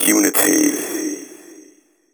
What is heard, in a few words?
techno male 140; Vocals